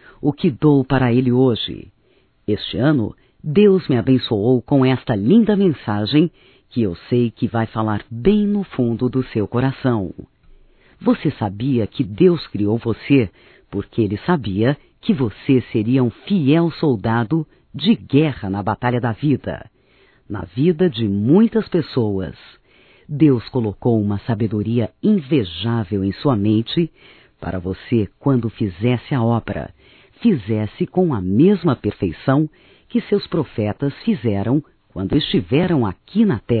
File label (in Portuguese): ANIV IRMãO (F), Evangelico; Telemensagens 2017